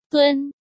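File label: "Dūn", Chinese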